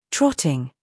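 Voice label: "humor", British; trotting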